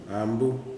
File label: "woman", Kuman